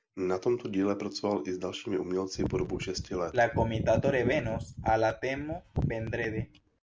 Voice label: czech speech; alibabasglab